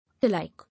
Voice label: "Alike", English

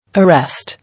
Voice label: "Arrest", English

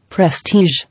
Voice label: "Prestige", English

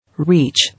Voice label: "Reach", English

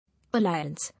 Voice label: "Alliance", English

